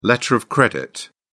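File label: "letter of credit", British English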